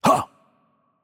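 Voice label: French attack light voice; bloom minigame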